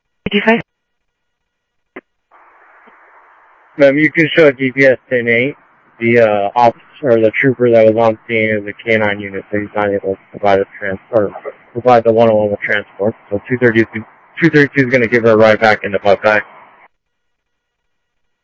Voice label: Sheriff-WestCnty, scanner audio

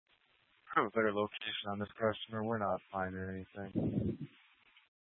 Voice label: Phx PD Fire Dispatch

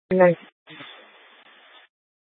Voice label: Light Rail Emergency, scanner

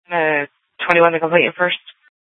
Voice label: Sheriff, scanner; Shrf Lakes

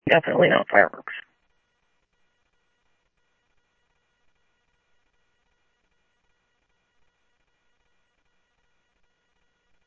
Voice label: Police, scanner

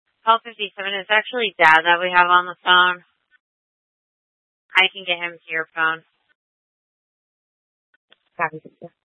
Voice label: Tempe PD, scanner; Rgnl Wireless Co-op (RWC)

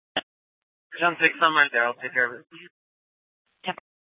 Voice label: Police South Dispatch; Regional Wrlss Cooperative (RWC); Scttsdle Police

